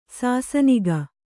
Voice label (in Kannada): "ಸಾಸನಿಗ", Kannada